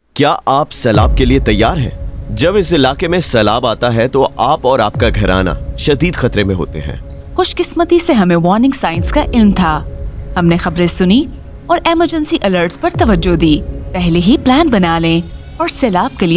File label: radio ad whats your plan urdu